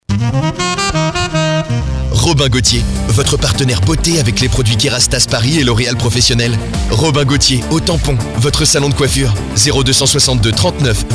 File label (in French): Spot Radio; HitFM; kerastase; le tampon